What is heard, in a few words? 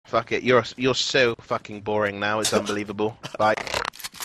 boring; greekgodx